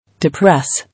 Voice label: "Depress", English

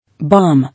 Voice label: "Bomb", English

